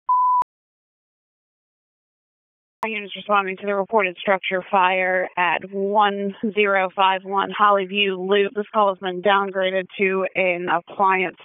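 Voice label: Fire Department HQ Dispatch; RFD HQ DISP; wake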